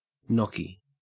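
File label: "gnocchi", Australian English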